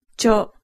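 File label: "cho", Japanese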